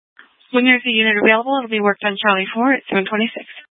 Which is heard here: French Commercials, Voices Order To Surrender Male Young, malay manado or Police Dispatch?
Police Dispatch